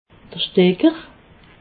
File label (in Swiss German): "stecker", alsace